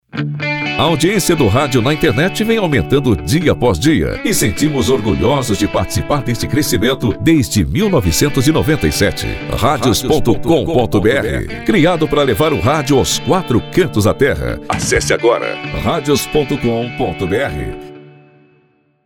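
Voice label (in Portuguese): A audiencia do radio na internet, masculino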